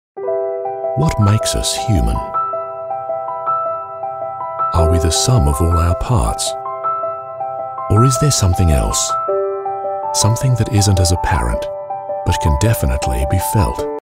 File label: Career Conversation Brand Video, Narrator